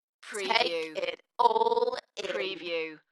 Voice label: UK female, Dalekness; beanstalk-audio

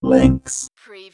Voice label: Robot voice "links"; lynne publishing

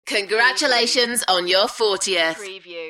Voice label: UK female

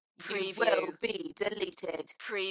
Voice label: UK female, Dalekness; beanstalk audio

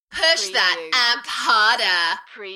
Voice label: UK female Enthusiastic; beanstalk-audio

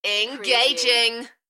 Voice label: "Engaging", UK female, Enthusiastic; beanstalk audio

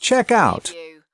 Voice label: "checkout", US male; lynne publishing

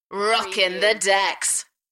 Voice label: UK female Rockin the decks; beanstalk audio

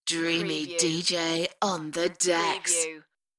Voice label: UK female Dreamy dj on the decks; beanstalk audio

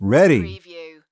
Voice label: "ready", US male

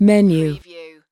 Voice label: US female menu; lynne publishing

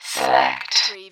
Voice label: Robot voice, select; lynne publishing

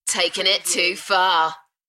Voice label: UK female; beanstalk-audio

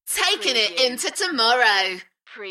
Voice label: UK female Takin it into tomorrow Enthusiastic; beanstalk audio

